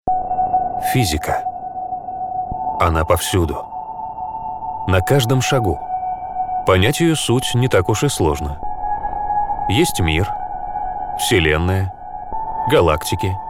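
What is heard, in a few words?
Russian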